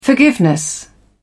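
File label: "forgiveness", British English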